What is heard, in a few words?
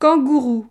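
"kangourou", French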